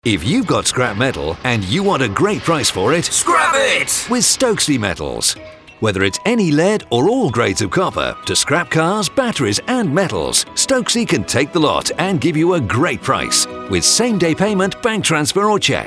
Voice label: radio advert; stokesley metals